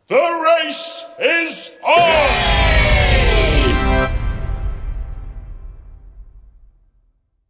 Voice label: "The Race Is On" Spoken, Male announcer saying 'The Race Is On' with fanfare; Male announcer saying ‘The Race Is On’ with fanfare, drum roll, and crowd cheers.; Pa Announcement